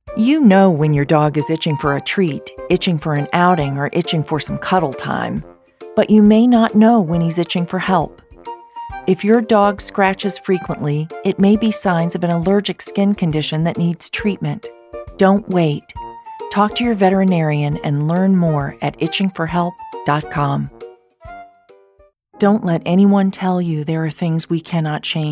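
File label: Commercial Promo Mix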